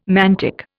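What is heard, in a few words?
"mantic", Indian English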